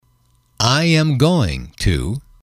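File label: "I am going to", English, male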